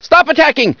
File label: get down, hostage reactions; czero opforce